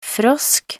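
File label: "frosk", Norwegian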